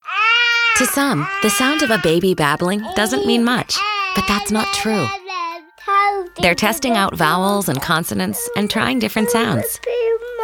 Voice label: Radio Eng; Categories: Radio Tags: Autism Speaks, English